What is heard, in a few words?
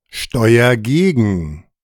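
"steuer gegen", German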